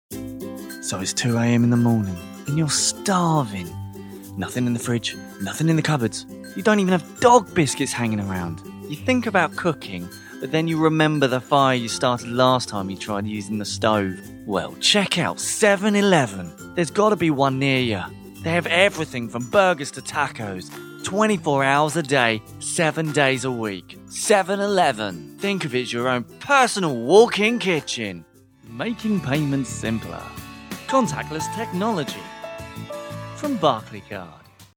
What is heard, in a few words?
Radio Ad